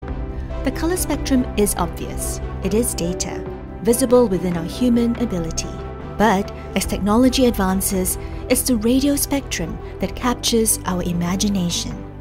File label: Company Video VO, Business Narrative - Emotive, Speech Like, Resonant, Charismatic, female; Narration; Corporate